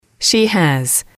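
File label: "she has", English, female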